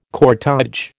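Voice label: "cortÊge", American English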